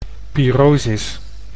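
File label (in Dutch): "pyrosis", Dutch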